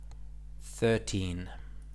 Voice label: "thirteen", English

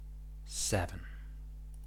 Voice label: "seven", English

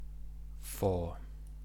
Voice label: "four", English

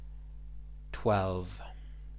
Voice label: "twelve", English

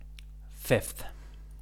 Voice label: "fifth", English

